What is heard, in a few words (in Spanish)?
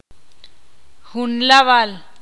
"once", ixil